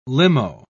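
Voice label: "limo", British English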